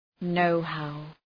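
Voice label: "know how", English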